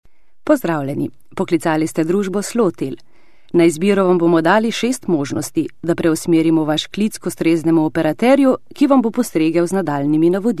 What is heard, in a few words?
Slovenian F IVR